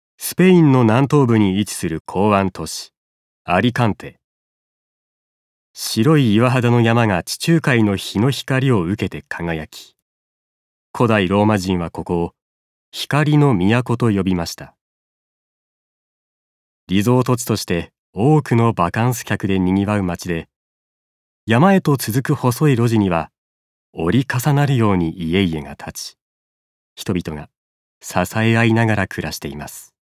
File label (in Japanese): 紀行ナレ